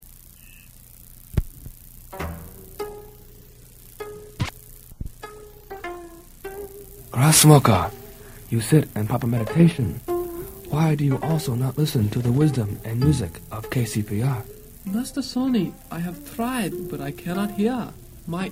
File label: promo